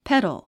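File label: "petal", English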